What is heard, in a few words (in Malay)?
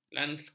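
"landskap", Malay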